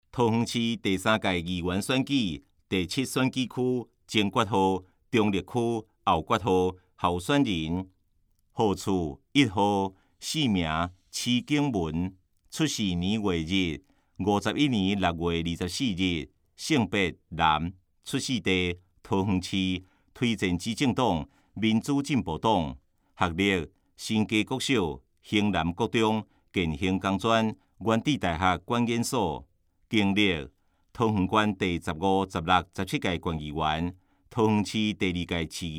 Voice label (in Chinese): 台語; 有聲公報; 市議員